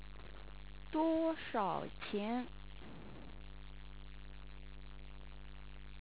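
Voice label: "duoshaoqian", Chinese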